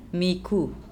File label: mikhu tree of the kind used to make boats, Andamanese